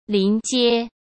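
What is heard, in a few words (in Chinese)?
"临街", Mandarin Chinese; chinese-flashcards; hsk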